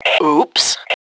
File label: Ooops, Female; T2 Female 02